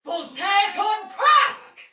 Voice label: Attack Tech, Soldier Female, Battle; Dialog; Barks; Sinecure

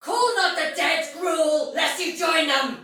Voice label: Soldier Female, Battle Barks; Sinecure; en

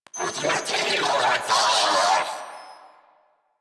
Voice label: Player Spotted, Female; en; Warframe; New War; Narmer Grineer

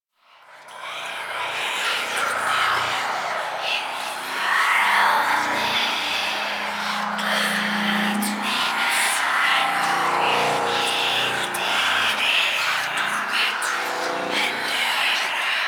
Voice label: Whispers High Pitch; Ambience

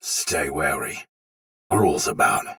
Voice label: Patrol Soldier Sword Agent Male, Battle; Dialog; Barks; Melee; Sinecure

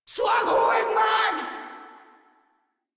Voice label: Reload Grineer Female; Warframe

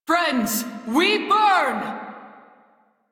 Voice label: Crew See Fire, Female; Dialog; en; Warframe; Railjack Crew; New Loka

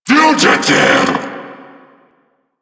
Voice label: Vocal Attack

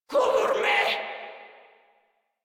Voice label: Cover Me Grineer Female; Warframe

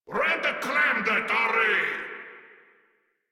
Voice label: Attack, Grineer Male; Warframe

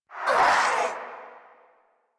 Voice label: Pain; Warframe; Zariman; Zariman Wraith

